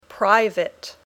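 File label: "private", English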